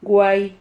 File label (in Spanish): "guai", Silabas